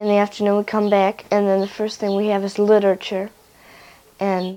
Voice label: Conversation with 12 year old white female Detroit Michigan; american english dialect